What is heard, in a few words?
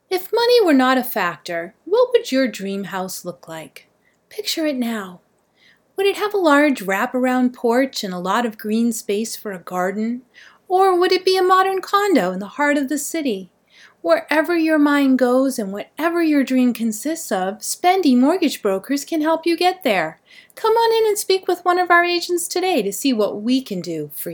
Mortgage Ad; Voiceover Commercial Mortgage Company; Professional voice acting